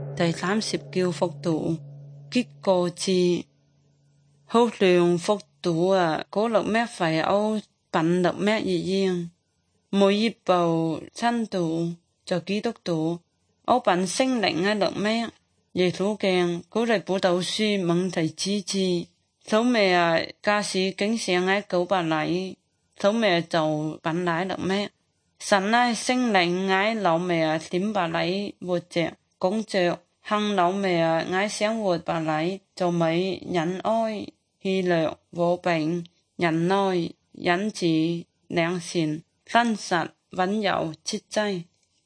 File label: good news zhuang guigang guiping